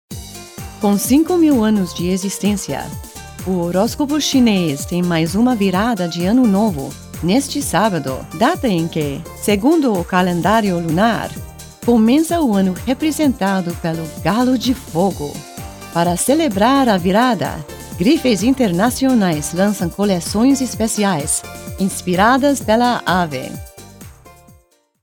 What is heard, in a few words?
Portuguese